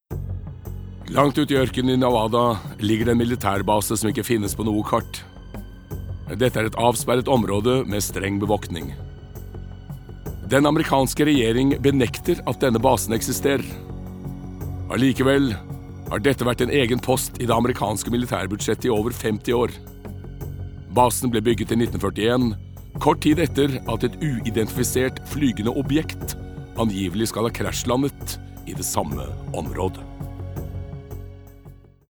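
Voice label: Norwegian documentary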